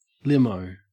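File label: "limo", Australian English; pronunciations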